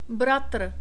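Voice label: "bratr", czech, male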